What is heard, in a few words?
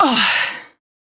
Pain, Female; Warframe; Railjack; New Loka